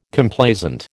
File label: "Complaisant", American